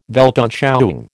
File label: "Weltanschauung", American